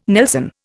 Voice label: "nelson", Indian

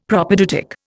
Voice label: "Propaedeutic", Indian; Dictionary

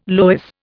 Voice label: "loess", Indian

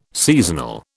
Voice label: "seasonal", British

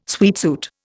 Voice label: "sweatsuit", Indian

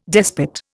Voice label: "despot", Indian

